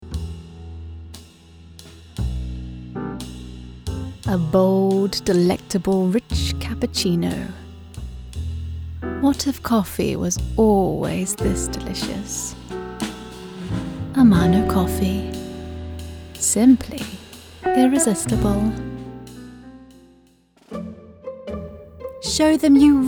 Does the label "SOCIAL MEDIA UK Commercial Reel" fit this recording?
Yes